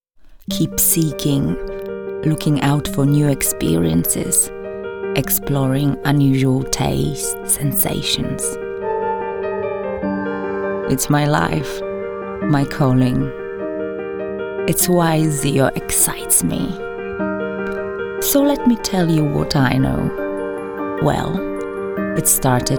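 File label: Polish Accented English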